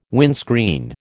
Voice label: "windscreen", American